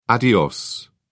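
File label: "adios", British English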